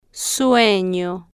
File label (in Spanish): "sueno", Spanish